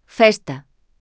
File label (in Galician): voz sintética; Amencer